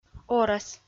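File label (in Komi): "орӧс", Komi, female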